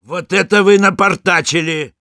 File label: jeers, ru